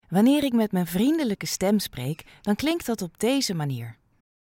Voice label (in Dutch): vriendelijk